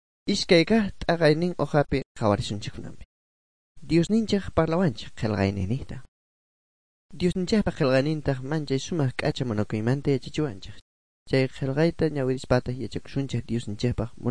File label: good news quechua bolivian sucre; bible